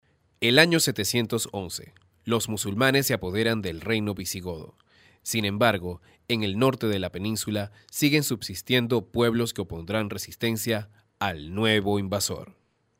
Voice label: locutor venezolano venezuelan voiceover